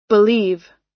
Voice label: "believe" US